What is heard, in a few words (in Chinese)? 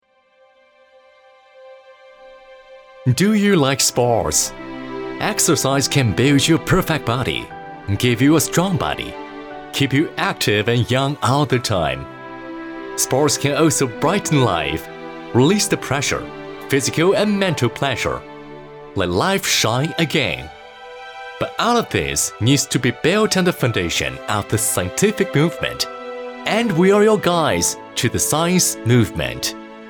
大气磅礴 娓娓道来 英文